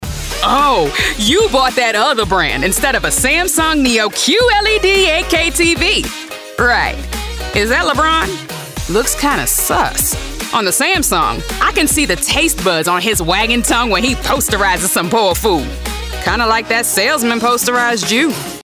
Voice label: AAVE Urban High Energy Sassy Commercial, african american voice; Samsung Commercial Demo